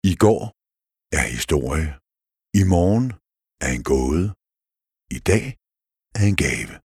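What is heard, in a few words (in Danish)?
Male Danish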